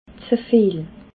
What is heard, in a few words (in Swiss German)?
"zeviel", alsace